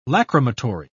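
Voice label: "lacrimatory", British English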